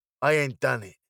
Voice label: "I ain't done it", British

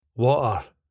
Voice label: "water", スコットランド英語; 水; 飲み込む”T”の音; 主に単語の中間にある”Ｔ”の音は消失します。; 特徴①：飲み込む”T”の音; water ヲォッアr; 出身：スコットランド（エディンバラ）

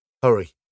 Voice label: hurry, British English pronunciation

